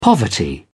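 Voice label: "poverty", British English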